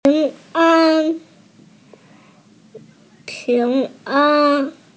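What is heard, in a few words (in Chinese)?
"ping2an1", Mandarin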